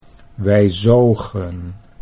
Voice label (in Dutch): wij zogen, Dutch